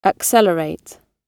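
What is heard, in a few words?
"accelerate", British English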